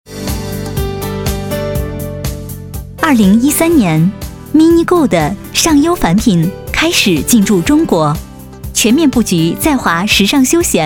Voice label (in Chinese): 清新甜美时尚现代; 通用类-企业产品店铺类; 尚优凡品